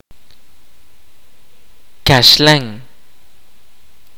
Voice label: "foreigner strange", kaqchikel

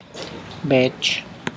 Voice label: "vulture", tlacochahuaya

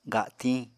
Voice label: "gatiñ", Apatani

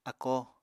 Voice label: "akó", Apatani; [akó] num. one; single

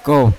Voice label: "ko", Yawa; right; unat

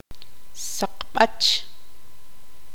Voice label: tzutujil; granizo de hielo

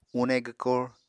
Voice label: "une gwkor", Apatani

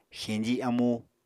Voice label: "xeñji amú", Apatani

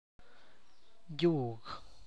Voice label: "yoke", tlacochahuaya

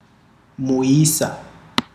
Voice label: "man adult male human", ndau

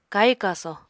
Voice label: "kahi-kaso", apatani

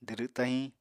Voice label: "dwdw tahiñ", Apatani

